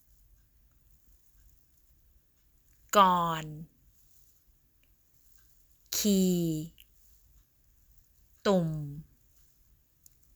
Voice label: Tone Mark Words, Thai; Thai Tone examples